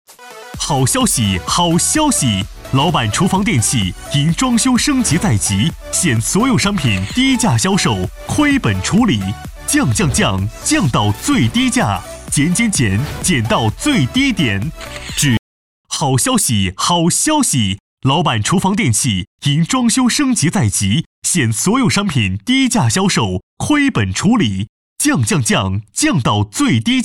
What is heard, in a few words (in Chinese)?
男 促销广告